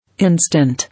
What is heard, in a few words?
"Instant", English